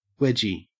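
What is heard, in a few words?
"wedgie" English, Australian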